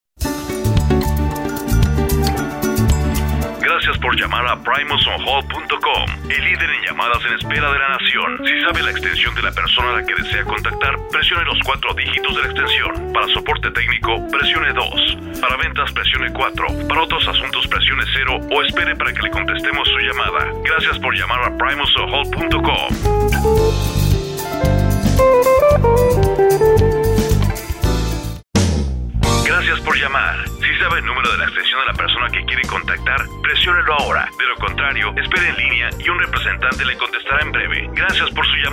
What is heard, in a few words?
On Hold Spanish